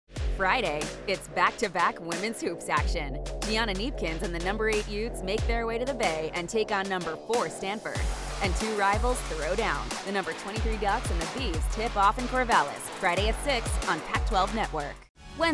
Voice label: Promo, female voices

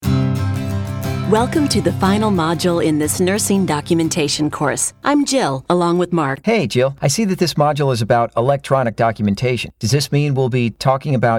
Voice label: eLearning, female voices

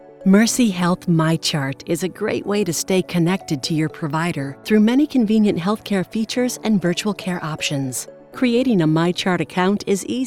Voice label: Explainer, femalevoices

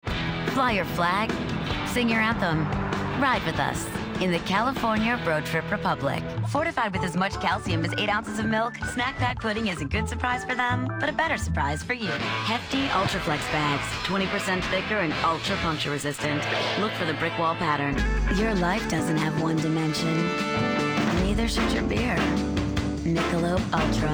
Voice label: Commercial, female voices

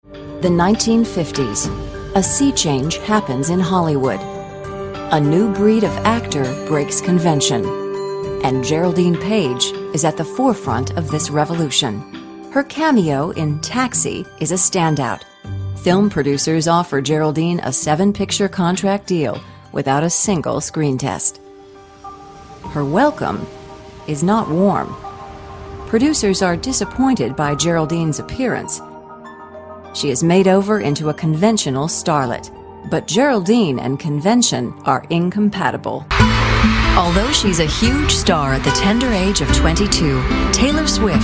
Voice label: Narration, female voices

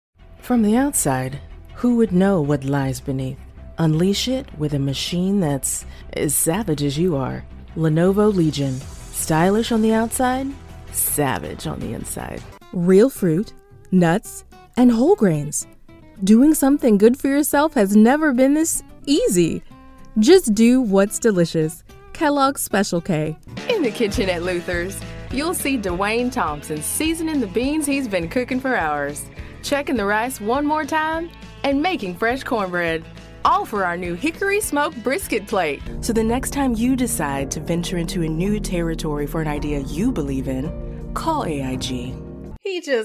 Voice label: Commercial, female voices

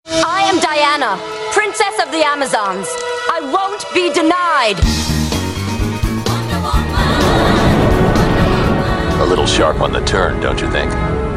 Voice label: Animation VO, female voices

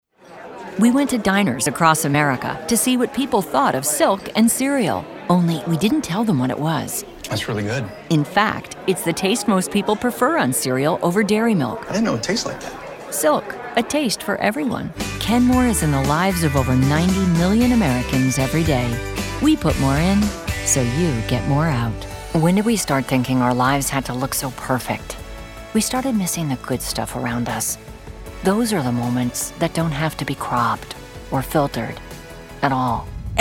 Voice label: Commercial, female voices

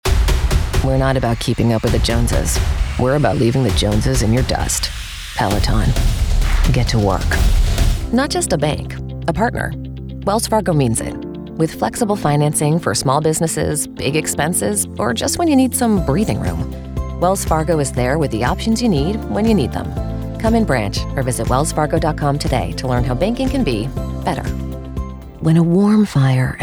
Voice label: Commercial, female voices

